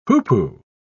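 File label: "hoopoe", British English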